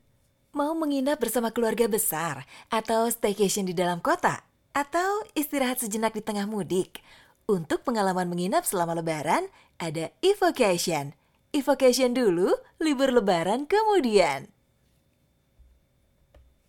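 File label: casual Indonesian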